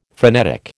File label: "phrenetic", American